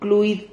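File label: "cluid", Spanish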